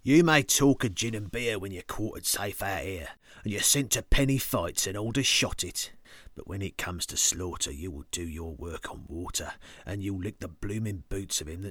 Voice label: accents